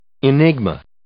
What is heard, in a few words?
"enigma", English